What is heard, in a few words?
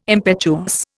"impetuous", Indian